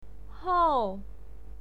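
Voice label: "hou", Mandarin Chinese pinyin, fourth tone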